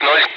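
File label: Speech, Russian, air traffic control; Digits; DCS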